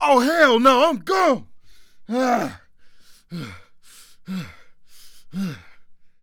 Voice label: Panic; zps; Humans; HumanCop